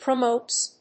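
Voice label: "promotes", English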